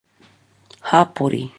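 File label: "hapori", Māori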